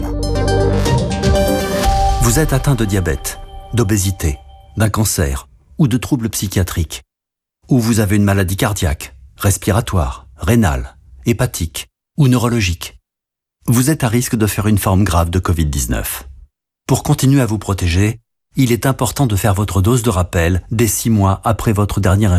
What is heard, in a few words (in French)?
spot publicitaire; France Info; 3eme dose; tous vaccines tous proteges